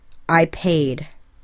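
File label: "I paid", English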